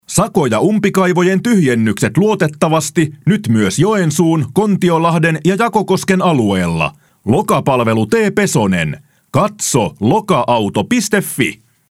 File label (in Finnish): Helmiradio mainos